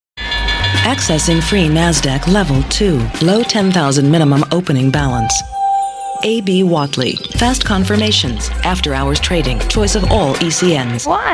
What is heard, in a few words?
commercial